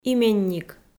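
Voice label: "именник", Komi-Zyrian, female; audio dict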